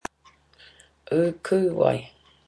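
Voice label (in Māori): "ukuwai", Māori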